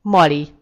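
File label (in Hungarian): "mali", Hungarian; gcompris; geography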